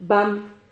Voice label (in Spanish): "bam", Silabas